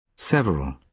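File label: "several", British English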